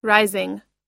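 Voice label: "rising", English pronunciation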